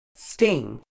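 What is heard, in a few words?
"sting", British English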